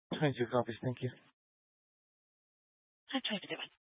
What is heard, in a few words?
Fire Dispatch